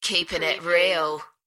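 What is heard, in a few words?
British female Keepin it real; beanstalk audio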